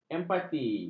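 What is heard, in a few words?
"empati", Malay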